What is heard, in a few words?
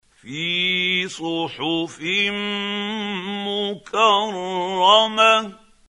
quran, Hussary, tafseer; Abasa